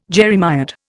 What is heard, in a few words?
"jeremiad", Indian